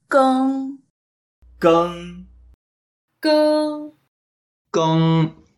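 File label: "geng1", Mandarin Chinese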